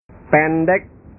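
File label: "pendek", Indonesian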